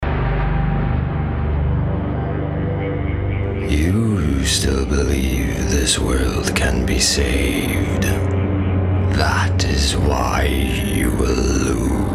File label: VO F SCI FI FANTASY, character performance voice test